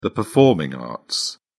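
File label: "performing arts", British English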